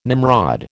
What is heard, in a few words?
"Nimrod", American